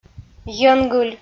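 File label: "йӧнгыль", Komi, female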